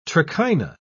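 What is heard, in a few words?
"trichina", British English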